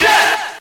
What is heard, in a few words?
"yeah", group of men